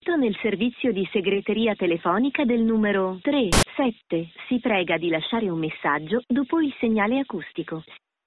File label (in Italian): Nuova voce segreteria telefonica; Iliad